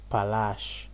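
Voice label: "palash", Nigerian Pidgin, male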